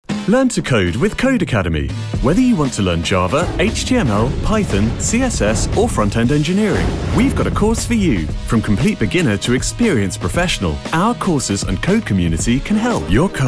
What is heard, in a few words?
Commercial